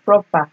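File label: "proper", Nigerian Pidgin, female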